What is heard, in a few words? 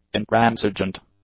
"intransigent", english; adjectives